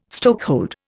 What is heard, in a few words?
"stokehold", Indian